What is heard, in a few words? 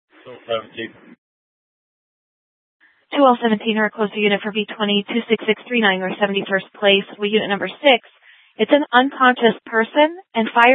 Dispatch; Scottsdale